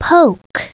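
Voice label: "poke", English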